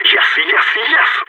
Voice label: on a roll brag, balkan; vo